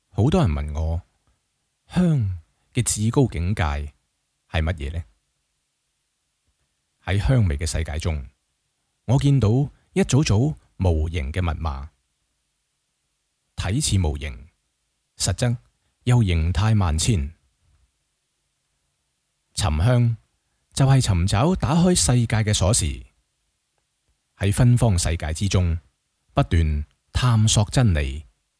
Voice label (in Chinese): 粤语; 香的至高境界